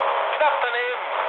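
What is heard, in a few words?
German bomb failure mood med, speech; War Thunder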